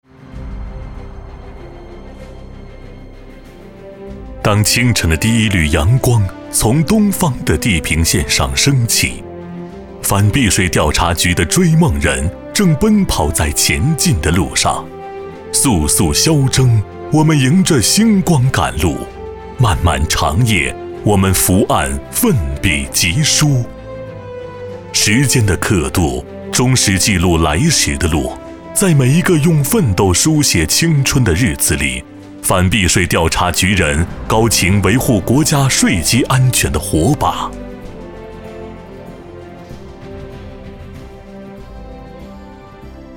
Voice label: 磁性大气; 反避税调查局

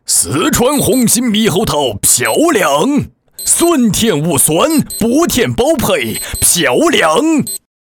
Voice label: 四川方言; 对标菏泽猕猴桃

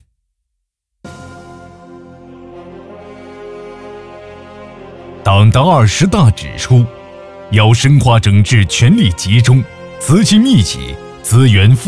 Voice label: 反腐专题片

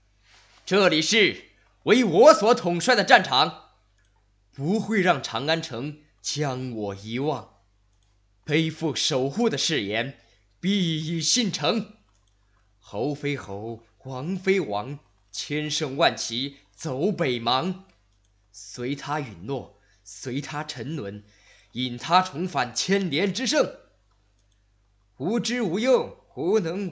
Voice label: 角色模仿; 李信

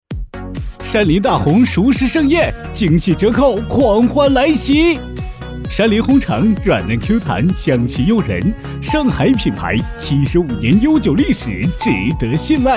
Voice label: 试音, 中年（36-54）, 普通话; 山林大红熟食盛宴试音

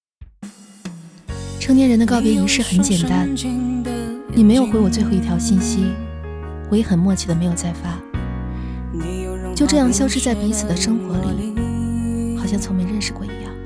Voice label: 旁白

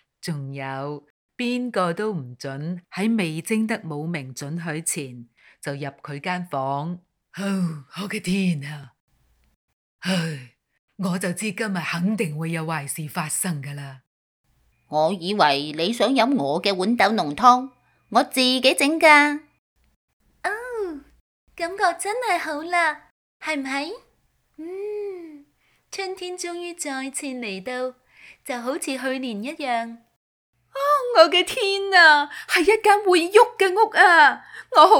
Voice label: 粤语儿童绘本样音五种音色

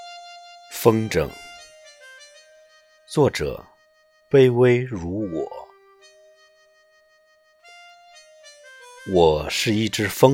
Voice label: 朗诵; 风筝

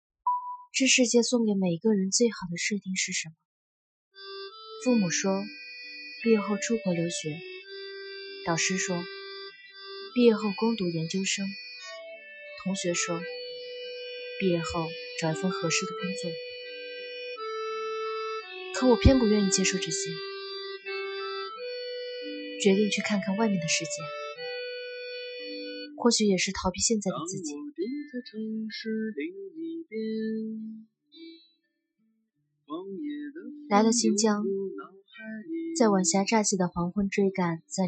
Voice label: 公益广告; 毕业

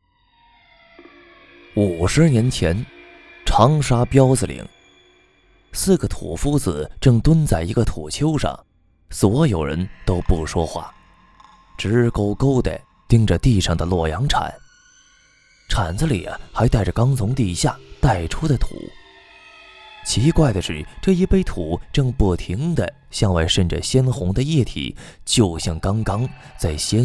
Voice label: 解说类 恐怖故事

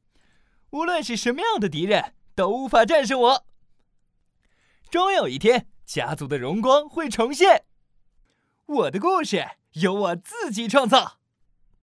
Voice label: 活泼青年

